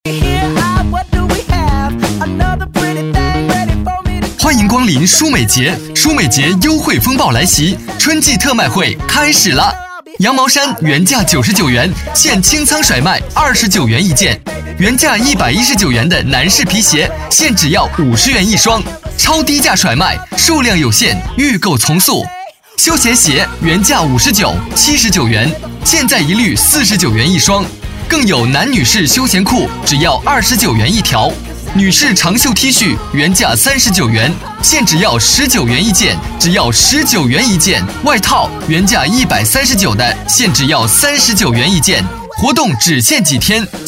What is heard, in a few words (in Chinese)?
男 广告 运动鞋 年轻 激情